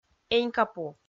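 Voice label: "энькапу", Komi, female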